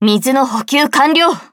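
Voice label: start, Japanese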